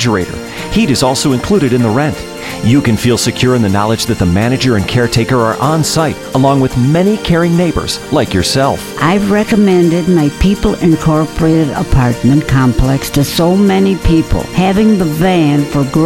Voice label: Senior Living Commercial Radio